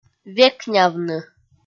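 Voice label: "векнявны", Komi-Zyrian, female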